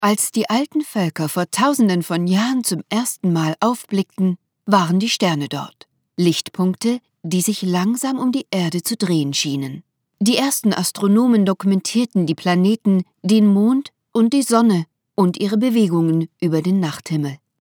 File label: german narration